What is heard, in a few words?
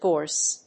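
"gorse", English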